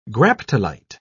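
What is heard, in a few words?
"graptolite", British English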